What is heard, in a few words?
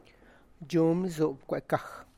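The basket is next to the box, Tlacochahuaya Zapotec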